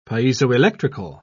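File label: "piezoelectrical", British English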